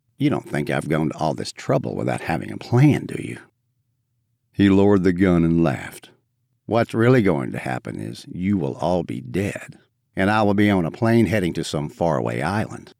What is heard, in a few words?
Action M F Dialogue; 3rd person